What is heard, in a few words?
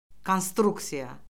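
"konstruksiya", Azerbaijani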